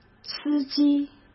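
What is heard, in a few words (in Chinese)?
"司机", Mandarin Chinese; hsk; chinese flashcards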